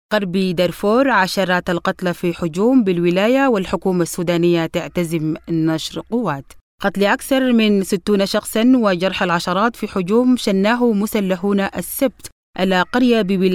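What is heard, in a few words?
Arabic Juba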